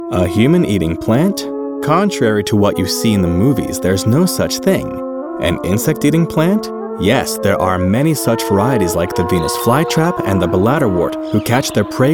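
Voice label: male voiceover; Flytrap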